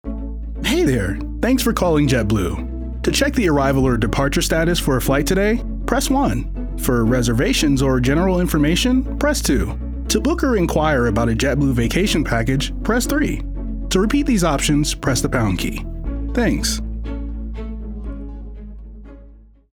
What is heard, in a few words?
E-Learning, African- American Male Professional Voiceover; Windows 11